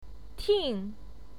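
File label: "ting", Mandarin Chinese, fourth tone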